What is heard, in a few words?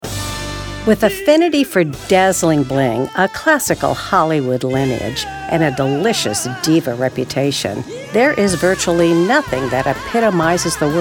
Narration